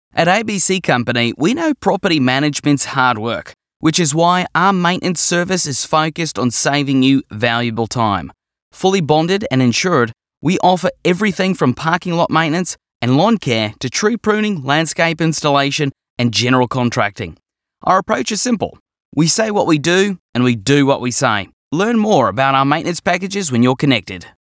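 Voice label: Australian English Voice Sample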